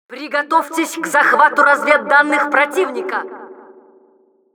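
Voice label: Announcer capture intel, Russian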